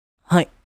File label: Yes anime boy character